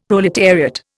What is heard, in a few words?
"proletariat", Indian; Dictionary